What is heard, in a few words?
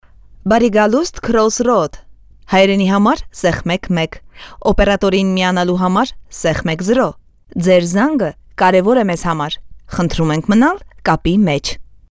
female armenian